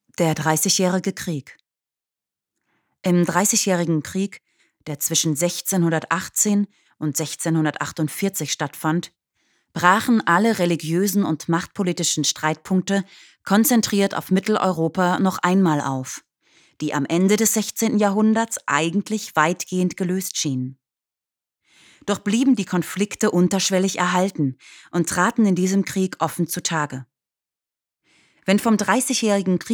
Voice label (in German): Dokumentation